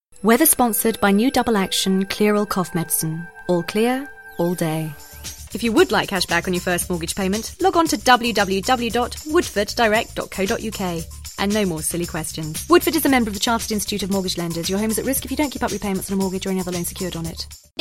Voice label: commercial